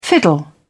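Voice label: "fiddle", English, British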